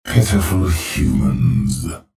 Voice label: chatter neutral; clutch